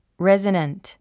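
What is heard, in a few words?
"resonant", English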